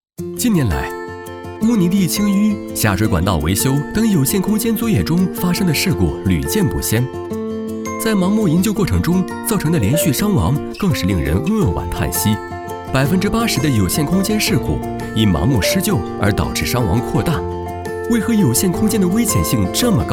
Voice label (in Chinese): 男 MG动画; 飞碟; 有限空间